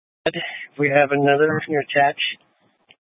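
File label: Police Dispatch, Scanner audio recording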